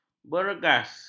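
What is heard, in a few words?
"bergas", Malay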